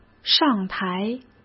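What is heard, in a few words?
"上台", Mandarin Chinese; hsk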